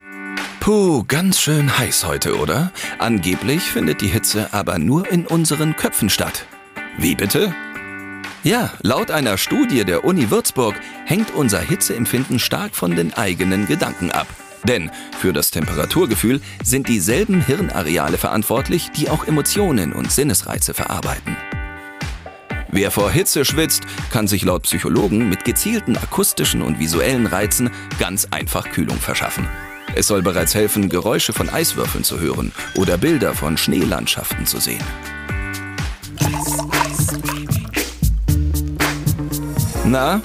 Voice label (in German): Kommentar; Galileo